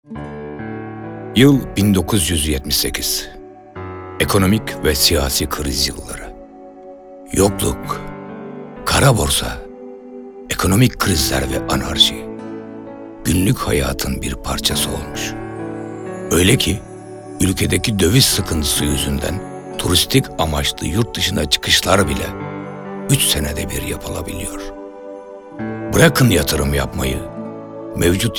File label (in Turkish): tanintim filmi, belgesel tarihi